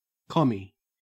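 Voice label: "commie", Australian English; pronunciations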